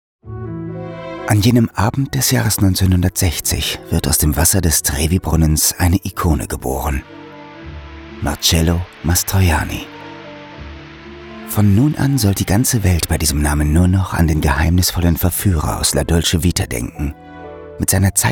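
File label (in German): Doku Kommentar, professioneller Sprecher; Portrait Mastroianni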